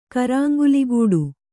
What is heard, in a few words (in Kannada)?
"ಕರಾಂಗುಲಿಗೂಡು", Kannada